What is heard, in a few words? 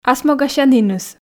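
"асмогасяніныс", Komi, female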